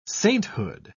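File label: "sainthood", British English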